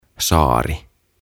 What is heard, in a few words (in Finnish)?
"Saari" "Island"; OTAVA KONSERNI